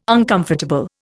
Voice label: "uncomfortable", Indian